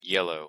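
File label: "yellow", English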